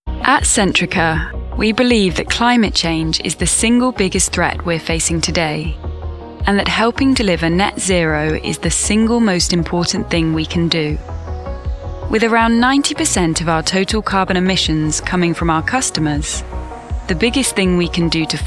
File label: Corporate, RP/English Neutral, Smooth, Natural Conversational, Youthful, Versatile